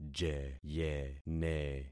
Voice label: "jeyene", concat syllables; Affixing experiment